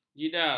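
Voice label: "jidar", Malay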